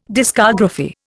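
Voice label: "discography", Indian English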